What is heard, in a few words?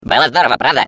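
Defective Turret goodbye, Russian; turret return defect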